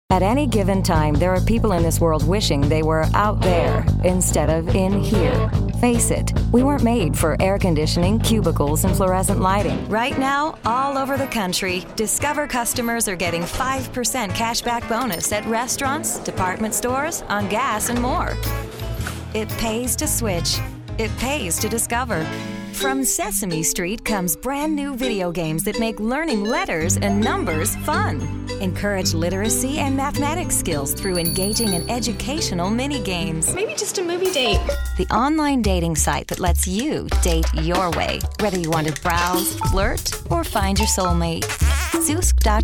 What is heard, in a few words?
Commercial